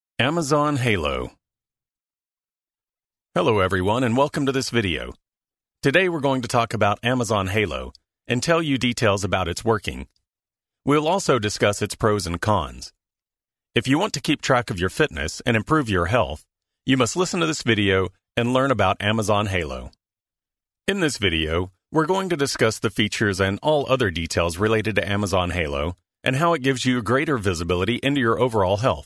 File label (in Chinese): 画外音解说